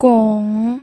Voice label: "gong3", pinyin audio

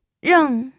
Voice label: "reng4", pinyin

